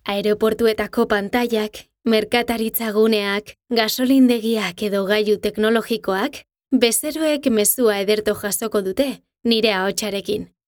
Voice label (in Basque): ALTERNATIBO EUSK